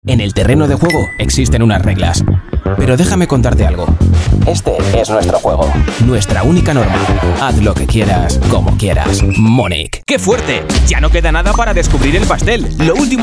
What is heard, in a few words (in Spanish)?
Audio locutor; AM ESCUELA